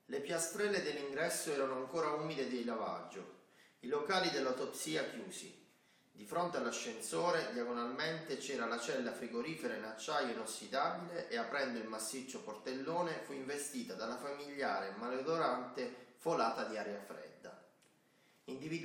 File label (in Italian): Interna VideoMic Me Piano Americano; nerdvana